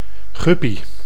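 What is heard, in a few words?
"guppy", Dutch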